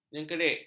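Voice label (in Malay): "jengkerik", Malay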